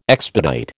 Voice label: "expedite", American